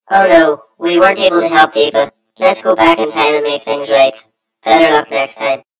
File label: story voiceover; yumpod